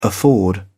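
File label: "afford", English, uk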